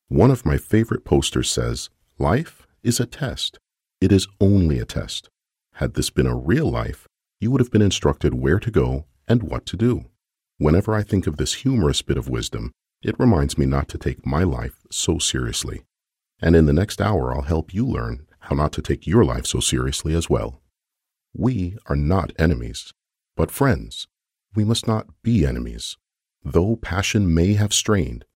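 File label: English, Canadian, male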